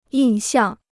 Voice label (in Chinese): "印象", Chinese; yìn xiàng; a memory